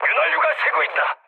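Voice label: Korean damaged oil mood med, speech; War Thunder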